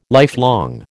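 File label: "lifelong", American English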